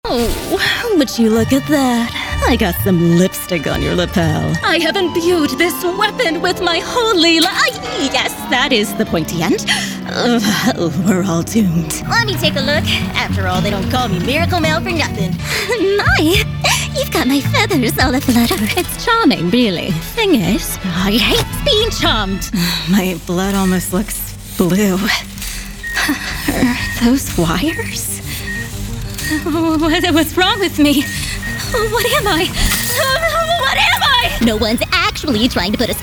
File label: Character; Character Demo